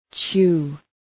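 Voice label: "chew", English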